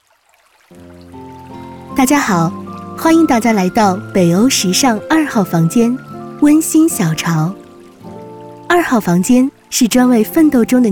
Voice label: 宣传片解说北欧时尚

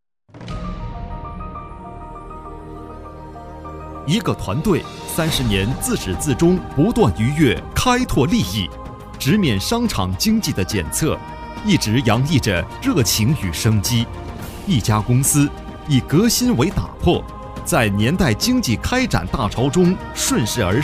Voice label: 政企宣传

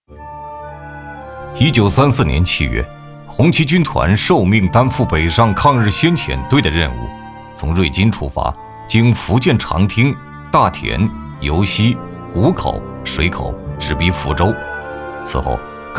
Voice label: 抗战纪录片

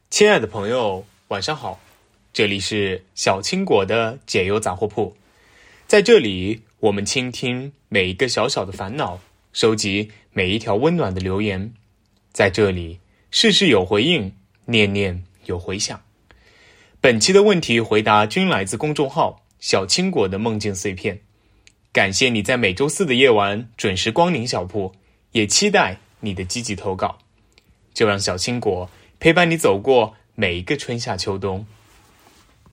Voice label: 电台 录音

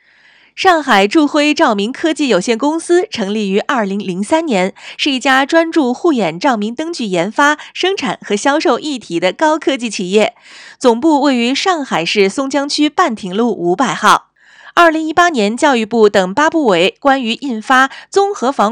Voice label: 宣传片配音样本; 公司宣传片配音

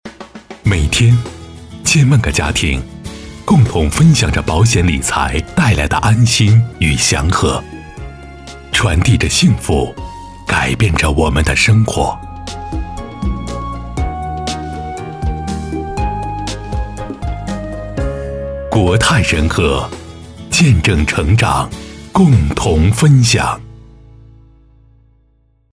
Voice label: 广告抒情温馨保险

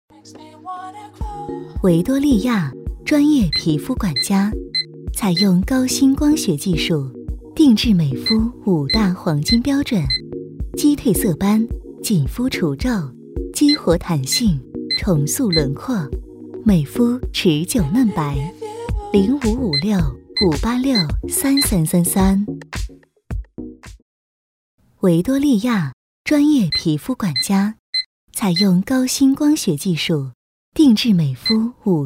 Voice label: 品质广告

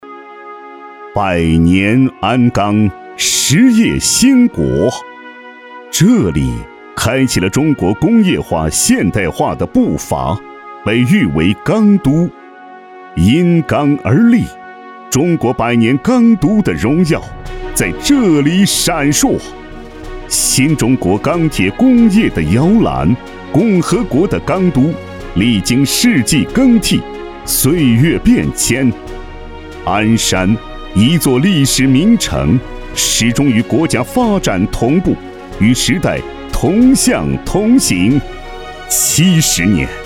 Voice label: 气势 专题; 共和国70年的钢铁长子情怀

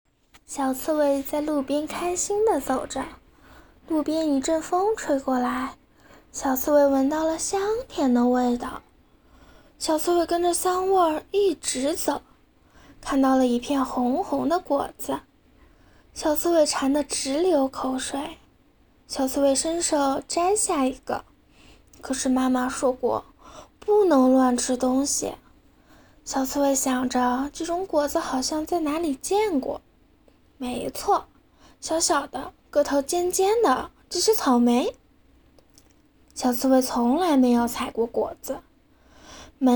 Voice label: 儿童 读物 配音

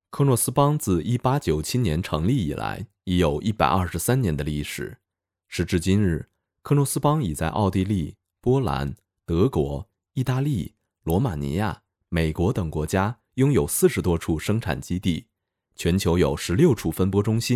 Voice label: 木板广告宣传

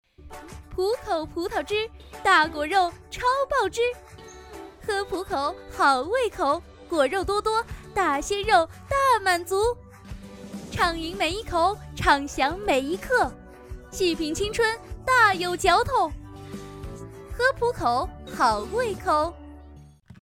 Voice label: 活力广告

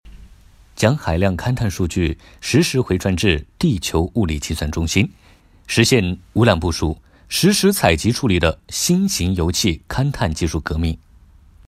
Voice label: 男声沉稳大气

